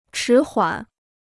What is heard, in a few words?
"迟缓", Chinese